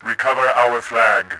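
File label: attack chase, voice, Bot; Tribes2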